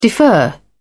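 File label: "defer", British English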